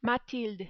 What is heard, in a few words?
"Mathilde", French